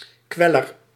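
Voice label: "kweller", Dutch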